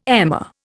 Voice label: "emmer", Indian

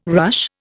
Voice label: "ruche", Indian

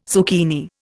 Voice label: "zucchini", Indian

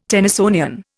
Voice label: "Tennysonian", Indian

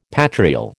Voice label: "patrial", American

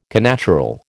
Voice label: "connatural", American

